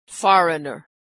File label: "foreigner", pronunciation